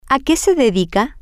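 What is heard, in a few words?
what does she do for a living, Spanish, female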